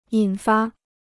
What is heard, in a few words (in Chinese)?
"引发", Chinese